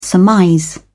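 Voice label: "surmise", British English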